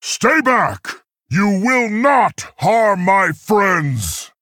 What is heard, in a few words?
Male Tauren; Guff